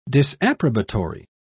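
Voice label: "disapprobatory", British English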